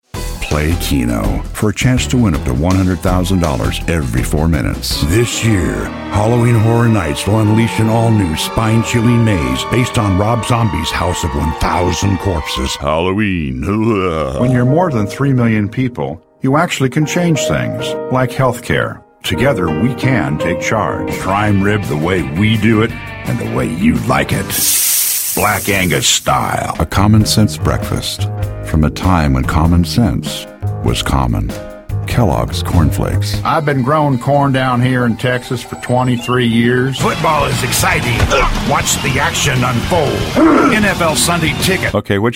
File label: commercial